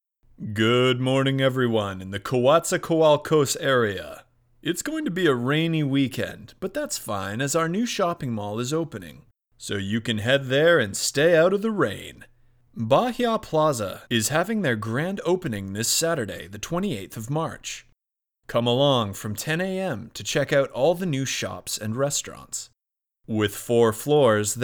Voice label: Radio Presenter; Rocket Up 1